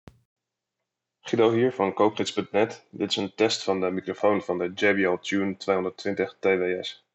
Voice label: jbl tune 220 tws mic test